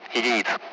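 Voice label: Speech Sound Russian Common Allied Flight; DCS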